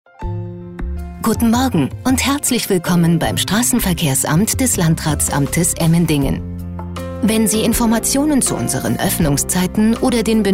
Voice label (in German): Telefonansage; Landratsamt Emmendingen; Telefonansagen für Städte, Gemeinden, Kommunen, Behörden, Ämter, Stadtwerke, Stadt